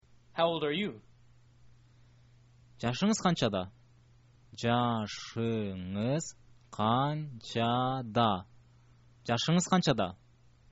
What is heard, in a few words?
how old are you, kyrgyz